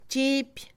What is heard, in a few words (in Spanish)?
pesar, Mixe, Mujer; Posesión; INALI